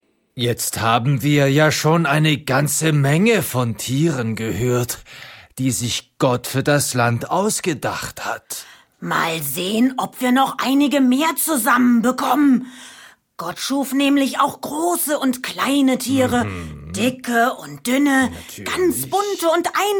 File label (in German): Erzaehler